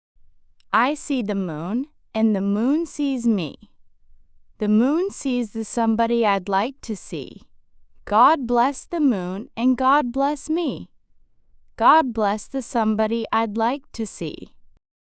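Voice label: female US, classic rhymes; I See the Moon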